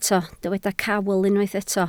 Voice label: "cawl", Welsh